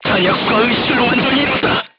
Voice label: voice message charger contused, korean, crew tanks